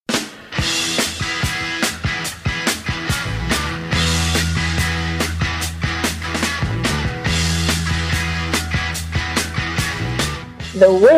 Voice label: Radio Ad